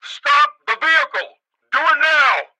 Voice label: police megaphone; Blind Drive